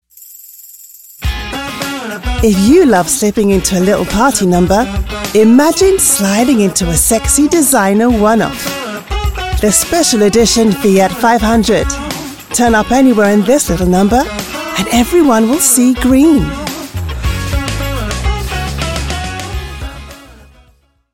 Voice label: UK English; Fiat